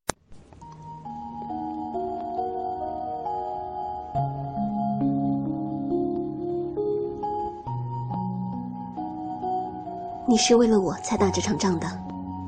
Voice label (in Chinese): 电影男主角配音